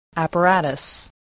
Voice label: "apparatus", English

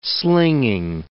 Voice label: "slinging", English

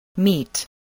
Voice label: "meat", spelling voice

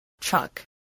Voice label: "chuck", English, voice

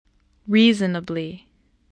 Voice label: "reasonably", english

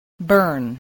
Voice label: "burn", spelling

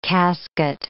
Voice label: "casket", English

